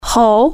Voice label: "monkey", Chinese